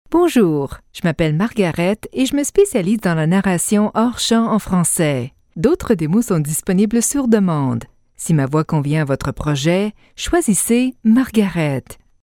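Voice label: French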